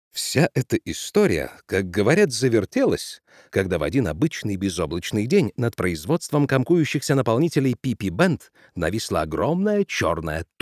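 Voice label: russian male narration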